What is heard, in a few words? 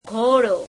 "horse", gujarati